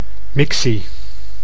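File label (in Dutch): "mictie", Dutch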